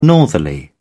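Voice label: "northerly", British English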